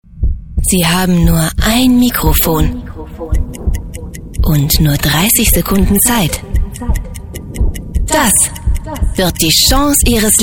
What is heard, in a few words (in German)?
funk werbung zusammenschnitt